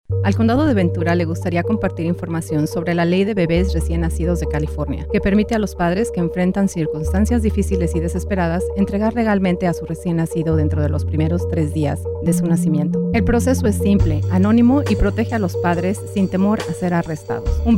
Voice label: Spanish; Safely Surrender Baby